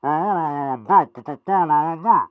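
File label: taunts, French; Pyro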